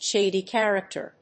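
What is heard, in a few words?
"shady character", English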